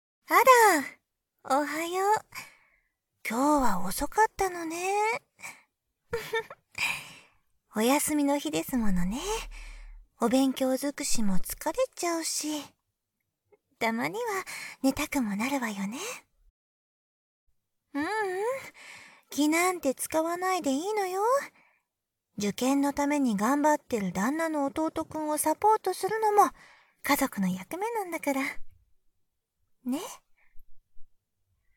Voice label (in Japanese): オープニング（バイノーラルマイク 効果音なし）